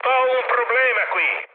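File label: Italian aircraft damaged mood med, speech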